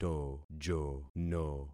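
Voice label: "tojono", concatenated syllables; Affixing experiment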